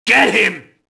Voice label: mobster alert group; Max Payne